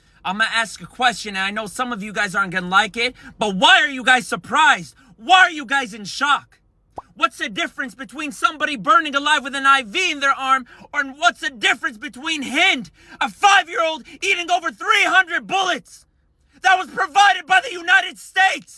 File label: internet commentary